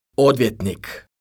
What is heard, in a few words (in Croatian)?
"odvjetnik", Croatian